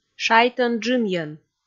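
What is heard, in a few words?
"шайтӧн-джынйӧн", Komi, female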